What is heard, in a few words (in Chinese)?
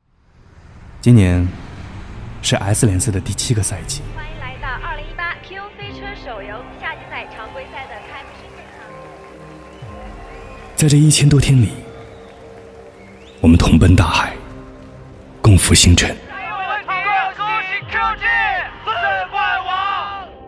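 男, 宣传片, 手游联赛, 春季赛开赛; 飞车手游S联赛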